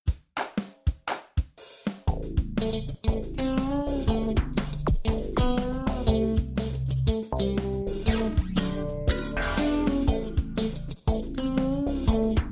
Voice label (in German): Tagesrueckblick Radio